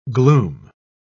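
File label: "glume", English, British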